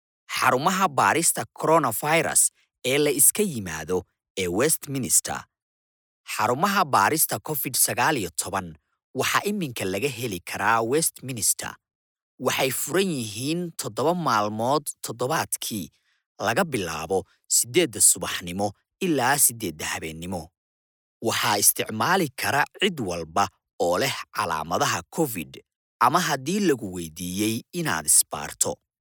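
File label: Somali, male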